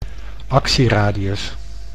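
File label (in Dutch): "actieradius", Dutch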